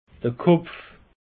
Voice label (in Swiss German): "kopf", alsace; peche